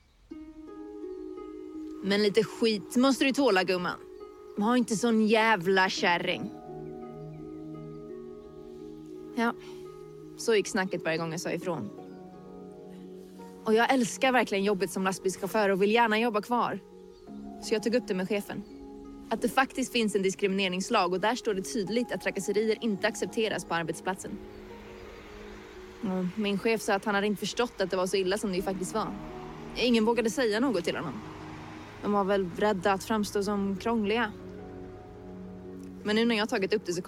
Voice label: swedish